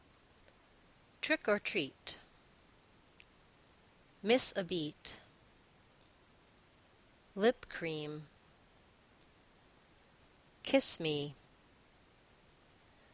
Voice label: phrases vowel i then vowel ee